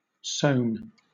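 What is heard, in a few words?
"sewn", British English; pronunciations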